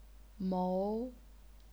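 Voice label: "mou", second tone, chinese pinyin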